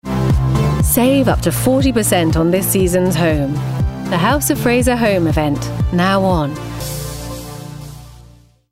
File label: Commercial; House Of Fraser